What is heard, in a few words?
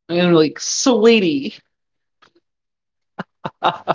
voice message